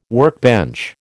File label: "workbench", American